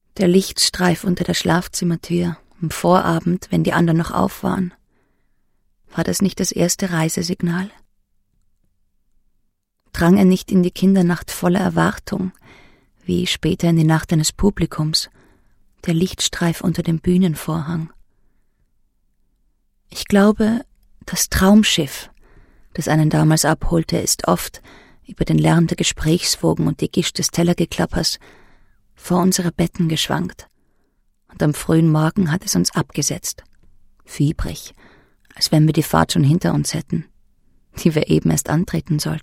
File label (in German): hoerbuch de de; walterbenjamintext